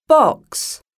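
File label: "BOX", English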